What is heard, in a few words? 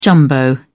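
"jumbo", British English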